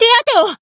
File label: heal, jp